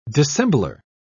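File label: "dissembler", British English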